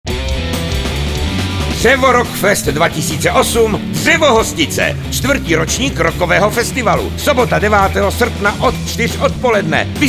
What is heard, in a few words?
radio spot; Drevo RockFest